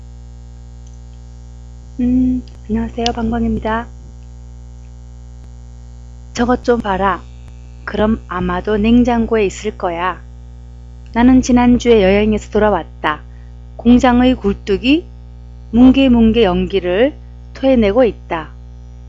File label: korean